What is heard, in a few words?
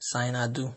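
"signado", Nigerian Pidgin, male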